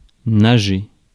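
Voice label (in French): "nager", French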